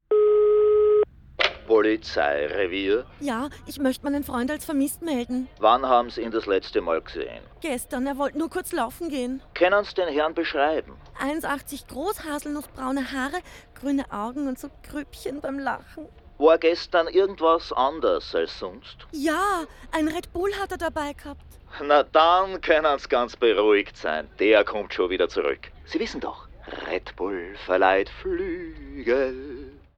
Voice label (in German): Werbung Vermisstenanzeige; Red Bull